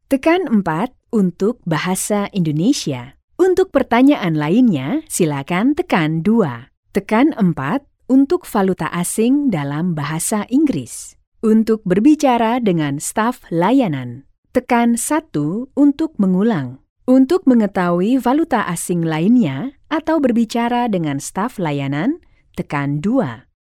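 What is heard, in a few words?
Indonesian, female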